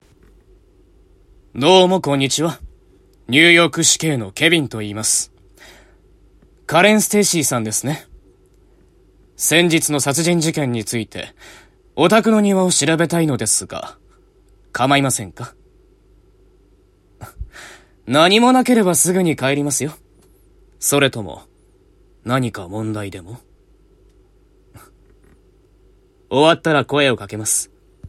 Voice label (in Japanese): 青年警官